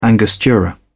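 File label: "angostura", British English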